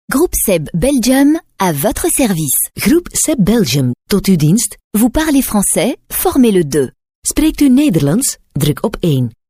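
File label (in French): Belgian French, female, IVR; voice demos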